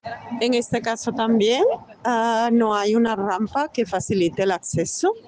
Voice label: Voice message